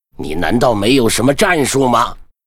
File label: Hero archmage pissed